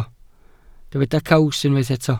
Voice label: "caws", welsh